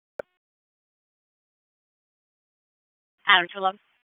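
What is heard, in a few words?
Police Northeast District Dispatch; Raleigh; p25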